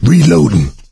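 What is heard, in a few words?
reloading quiet, male; Left 4 Dead 2; coach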